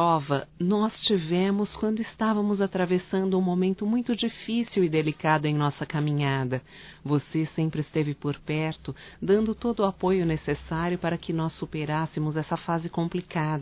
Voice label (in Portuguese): Amizade amigo duas vozes, Só Voz; Telemensagens; masc